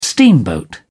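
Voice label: "steamboat", British English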